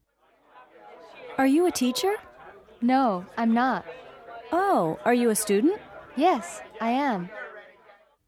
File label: Conversation; Conversation C D; new american streamline departures